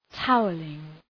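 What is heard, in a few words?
"towelling", English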